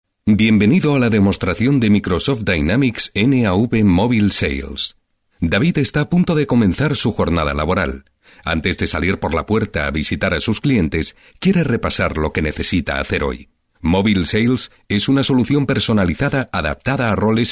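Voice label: Spanish European, male, narration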